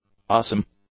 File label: "awesome", English; adjectives